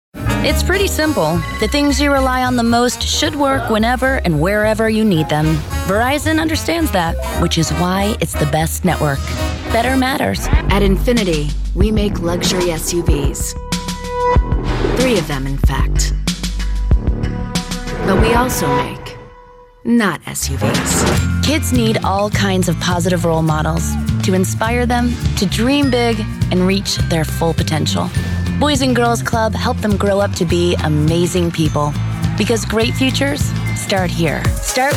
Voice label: Commercial